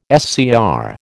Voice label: "SCR", American